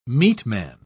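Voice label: "meatman", British English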